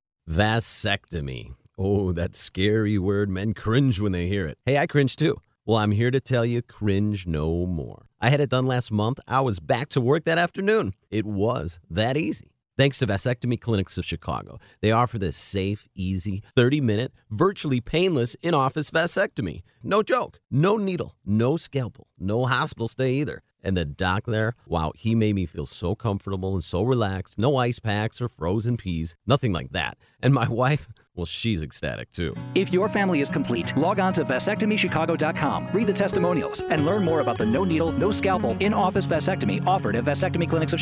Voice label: radio ad